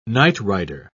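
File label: "nightrider", English, British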